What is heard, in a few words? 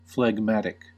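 "phlegmatic", American English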